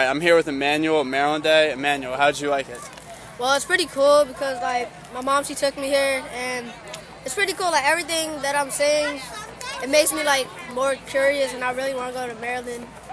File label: opinions from the younger crowd